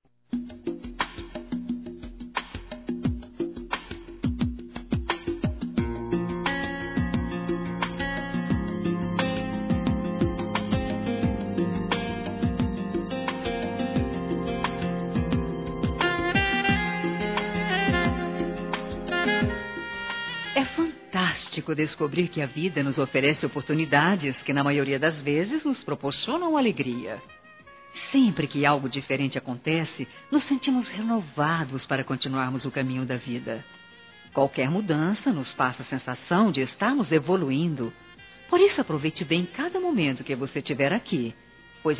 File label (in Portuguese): BoaS VINDAS Feminino; Telemensagens; Telemensagens 2017